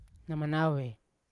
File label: "namanave" yesterday, Yawa